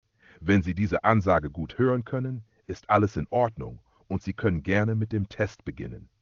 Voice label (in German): testansage